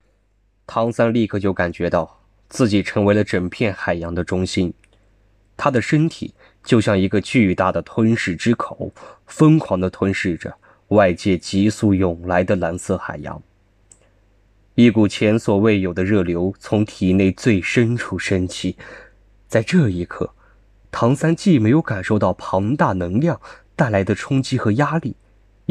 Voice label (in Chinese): 小说节选